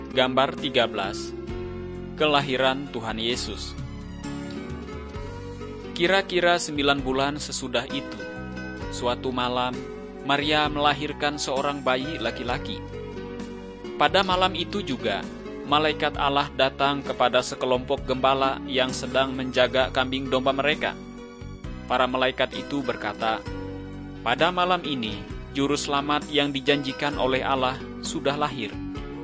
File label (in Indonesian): kabar baik good news bahasa indonesia; malay